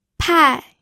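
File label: "pai", Mandarin Chinese, fourth tone; pinyin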